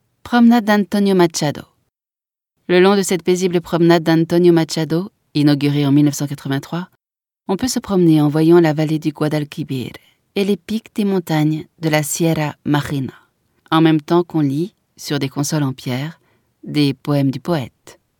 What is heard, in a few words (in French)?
audioguia, frances; audioguia baeza